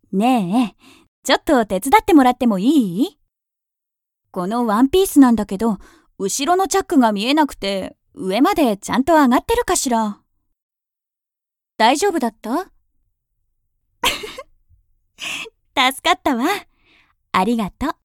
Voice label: 親戚のお姉さん

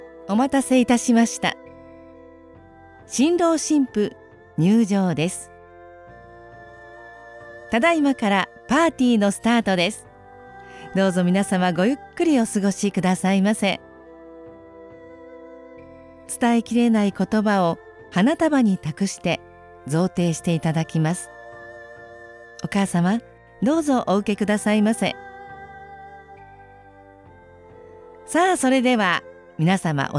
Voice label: 司会

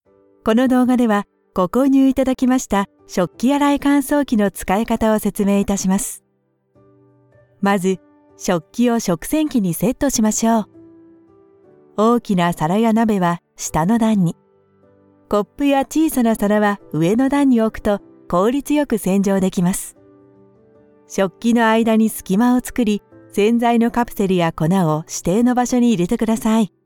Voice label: マニュアル説明（ストレート）

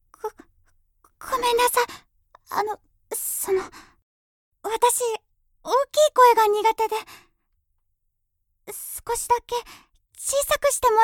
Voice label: 臆病な少女

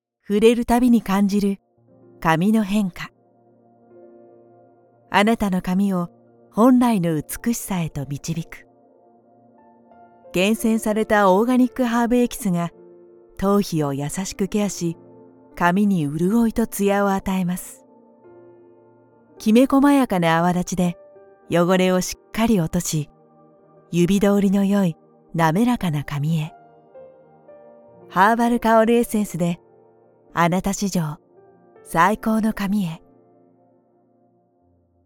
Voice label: 商品CM（高級感のある）

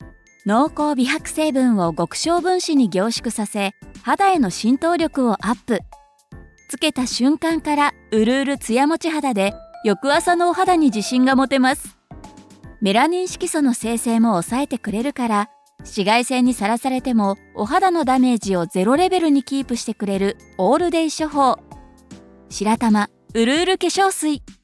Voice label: 化粧品CM

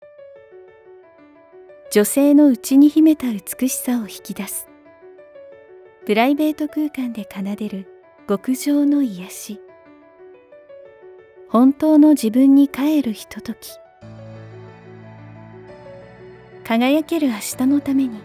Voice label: ナチュラルなCM

